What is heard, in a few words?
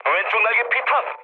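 Korean left wing mood low; War Thunder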